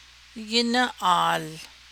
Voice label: chew it 2nd person singular, Navajo